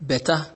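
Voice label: "better", Nigerian Pidgin, male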